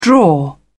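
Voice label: "draw", British English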